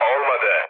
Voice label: bomb failure, mood low, speech tur; War Thunder